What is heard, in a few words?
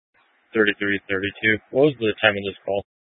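Police Dispatch, scanner audio recording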